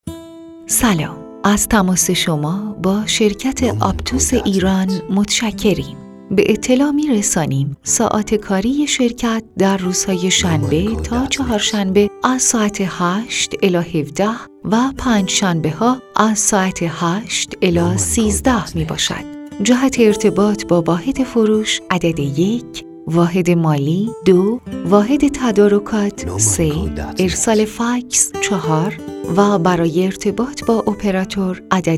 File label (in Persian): گوینده بانو; اجرای پروژه آپتوس ایران